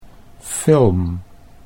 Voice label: "film", Dutch

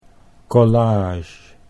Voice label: "collage", Dutch English

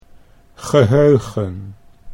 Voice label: "geheugen", Dutch

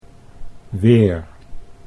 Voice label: "weer", Dutch

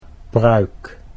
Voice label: "pruik", Dutch

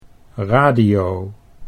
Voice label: "radio", Dutch

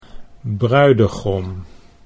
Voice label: "bruidegom", Dutch

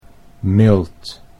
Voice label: "mild", Dutch

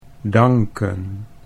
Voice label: "danken", Dutch

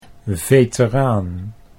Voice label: "veteraan", Dutch

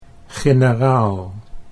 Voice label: "generaal", Dutch

